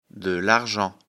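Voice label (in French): "argent", French; afrikalan; lecture fr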